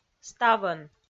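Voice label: "ставӧн", Komi-Zyrian, female; audio dict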